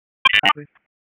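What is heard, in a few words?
EMS Dispatch, p25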